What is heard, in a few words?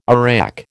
"arak", American